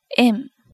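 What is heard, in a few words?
"en", Japanese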